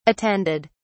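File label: "attended", English, British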